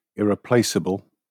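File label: "irreplaceable", British English; pronunciations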